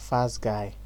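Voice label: "fast guy", Nigerian Pidgin, male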